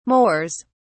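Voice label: "moors", British English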